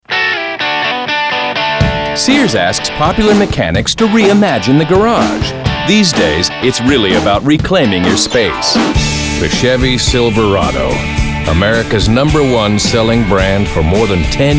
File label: commercial